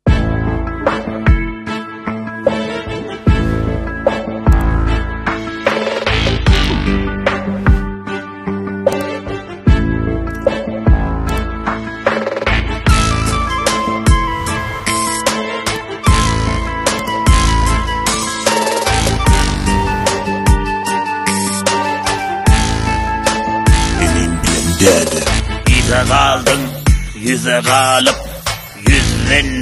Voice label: turkmen rap